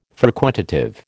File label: "frequentative", American